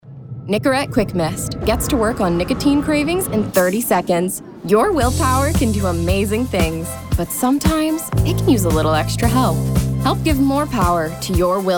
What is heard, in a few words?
VO Commercial